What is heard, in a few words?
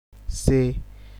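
"say", Nigerian Pidgin, male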